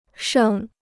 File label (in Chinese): "盛", Chinese